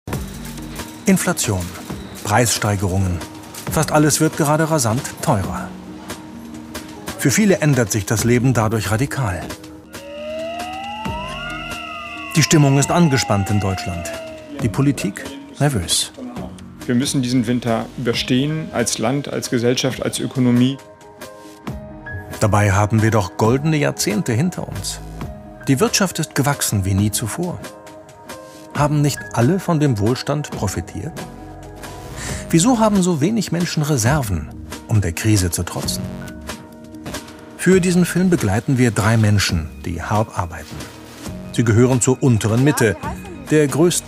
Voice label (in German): doku; ndr; 45 min; krise der mittelschicht